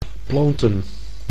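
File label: "planten", Dutch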